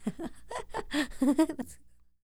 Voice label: SPEAKING, Vocal Jazz Fx